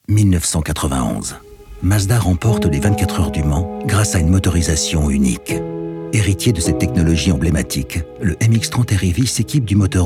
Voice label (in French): Radio; Mazda; MX30